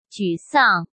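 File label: "沮丧", Mandarin Chinese; hsk; chinese-flashcards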